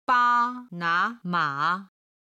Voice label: "ba1 na2 ma3", Chinese